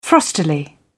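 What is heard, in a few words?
"frostily", British English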